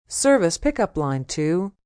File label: Paging Service, PA, female; Pa Announcement; VoiceBox